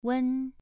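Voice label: "wen" Mandarin Chinese, second tone, pronunciation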